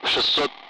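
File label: Speech, Russian, Numbers, 60; Allied Flight; DCS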